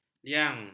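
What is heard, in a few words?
"liang", Malay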